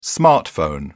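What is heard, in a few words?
"smartphone", English, British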